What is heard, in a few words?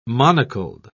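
"monocled", English, British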